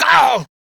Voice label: Pain